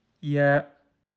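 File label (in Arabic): "ي", Arabic; quiz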